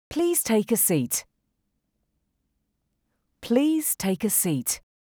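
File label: Please take a seat English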